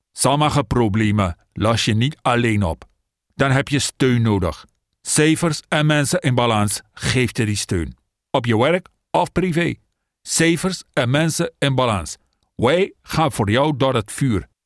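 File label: Dutch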